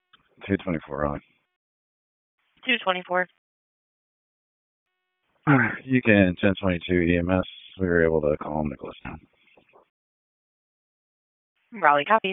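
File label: Police Northeast District Dispatch; Raleigh Police Northeast District Disp; wake p25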